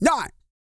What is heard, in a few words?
COUNT, Vocal Planet, R&B & Dance, COUNTING; ILIO